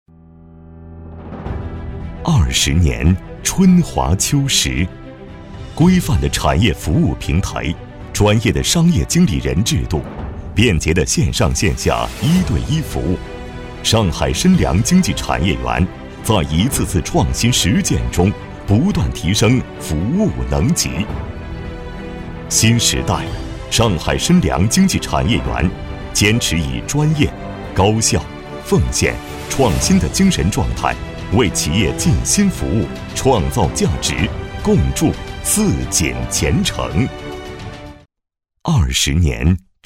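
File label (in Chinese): 男【宣传】招商宣传; 焕新服务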